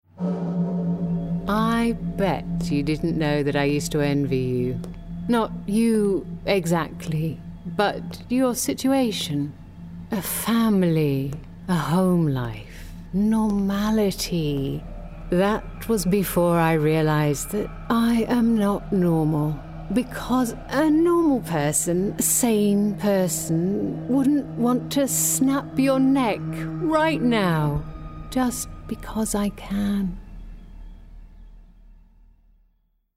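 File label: Gaming Villain, female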